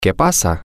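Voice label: whats happening, spanish, male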